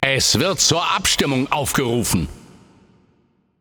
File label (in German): Announcer German Voting Called; Paladins